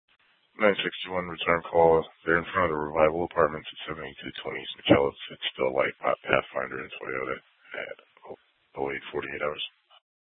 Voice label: Police South Dispatch